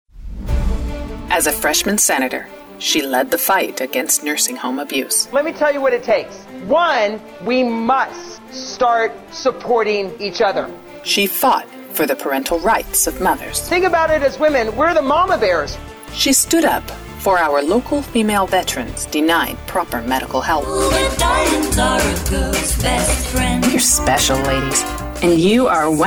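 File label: voice over commercial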